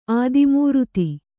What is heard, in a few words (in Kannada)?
"ಆದಿಮೂರುತಿ", Kannada